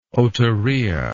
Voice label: "otorrhea", English